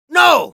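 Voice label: no, Spanish; Demoman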